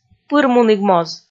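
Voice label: "пырмунігмоз", Komi, female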